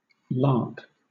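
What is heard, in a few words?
"lakh", British English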